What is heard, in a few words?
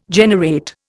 "generate", Indian